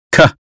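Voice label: "cat", American English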